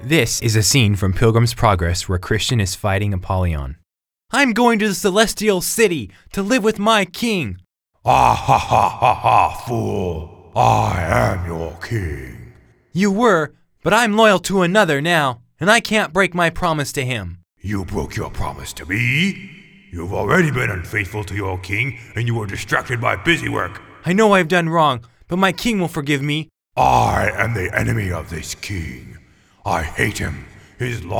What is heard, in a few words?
Childrens Animation; Pilgrims Progress